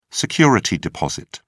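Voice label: "security deposit", British English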